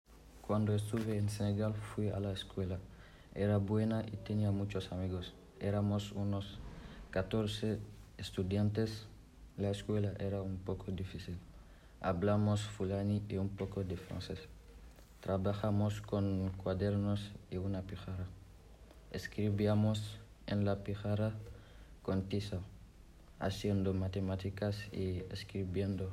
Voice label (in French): espagnol